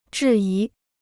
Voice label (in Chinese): "质疑", Chinese